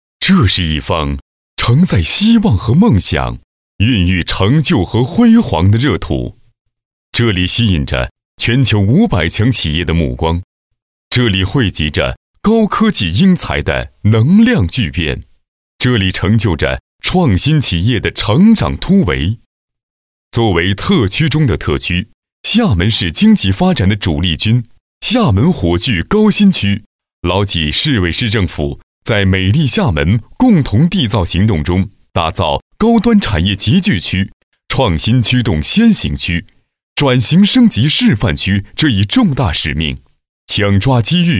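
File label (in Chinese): 男国大音-专题-企业; 厦门火炬高新区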